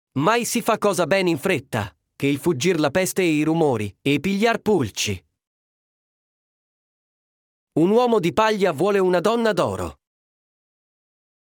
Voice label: Italian proverbs